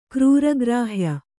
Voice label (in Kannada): "ಕ್ರೂರಗ್ರಾಹ್ಯ", kannada